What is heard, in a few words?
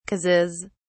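"causes", British English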